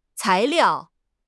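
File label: "cai2 liao4", Mandarin Chinese; HSK4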